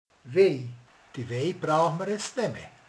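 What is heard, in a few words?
"bölcső", Hungarian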